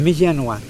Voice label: "canoe front", Mokilese